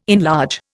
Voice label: "enlarge", Indian